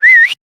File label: Horse Start Adult; Duviri